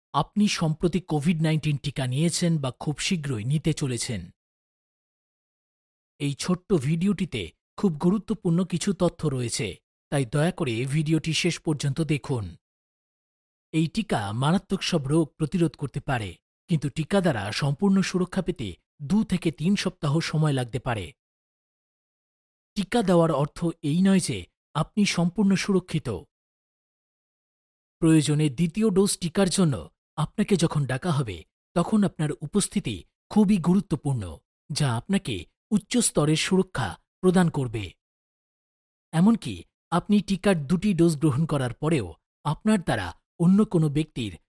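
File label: Bengali Announcement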